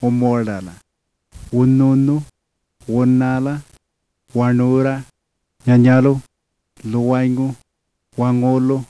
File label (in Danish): yanyuwa; [umuaɖala wun̪un̪u wunala waɳura n̠an̠alu l̪uwaɲu waŋolu]